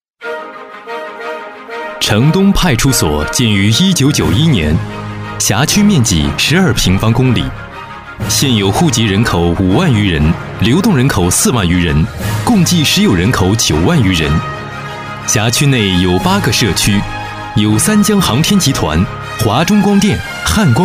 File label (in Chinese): 男 专题; 城东派出所